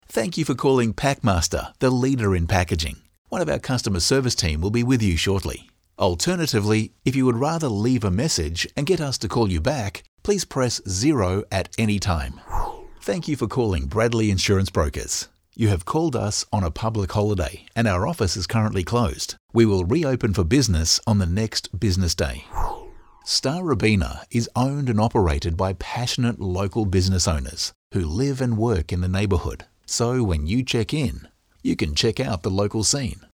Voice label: On Hold